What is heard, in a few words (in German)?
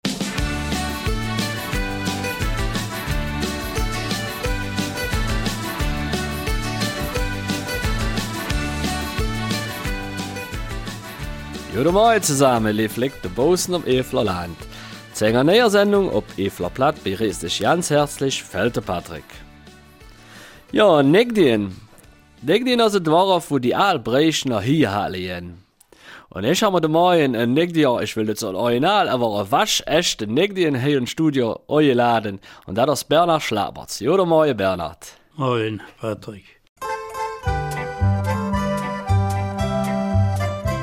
Mundart Süd; Brauchtumspflege in Neidingen